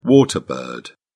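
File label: "water bird", British English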